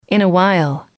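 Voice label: "in a while", English, female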